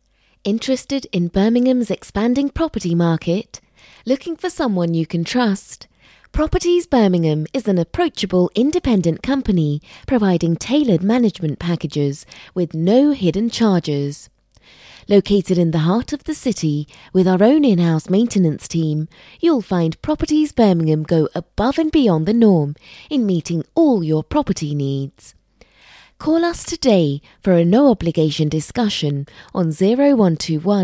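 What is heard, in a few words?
British English, Commercial; voice demos; GoLocalise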